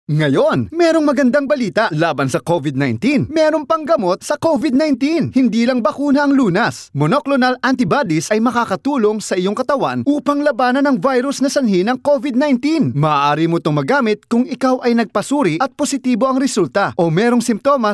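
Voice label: Filipino, voiceover; Covid 19 Vaccine in California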